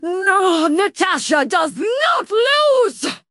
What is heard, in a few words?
upset; fertig classic games